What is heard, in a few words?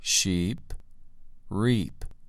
"sheep" "reap"